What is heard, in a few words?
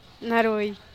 "narui" mischievous